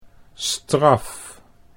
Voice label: "straf", Dutch

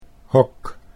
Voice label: "hok", Dutch

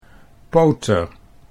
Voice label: "poter", Dutch

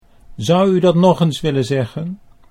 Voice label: zou U dat nog eens, Dutch

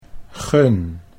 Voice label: "gun", Dutch

